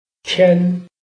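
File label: "tian1", Mandarin Chinese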